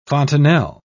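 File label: "fontanel", British English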